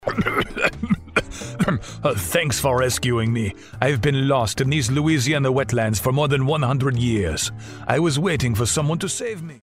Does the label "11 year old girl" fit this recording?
No